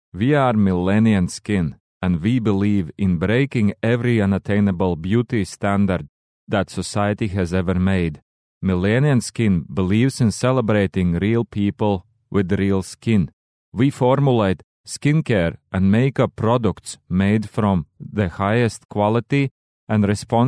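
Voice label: Latvian Male English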